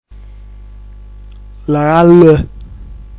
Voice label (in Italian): laall, dialetto giulianova